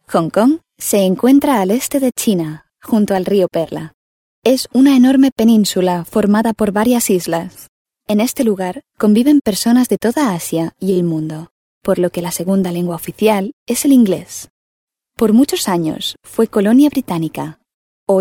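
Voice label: Spanish female corporate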